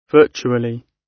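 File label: "virtually", British English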